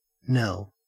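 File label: "knell", Australian English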